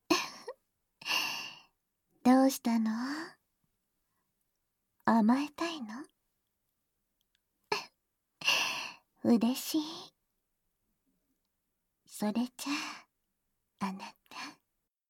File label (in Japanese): 授乳触手オナホ+耳中攻め連続絶頂（バイノーラルマイク 効果音あり大きめ）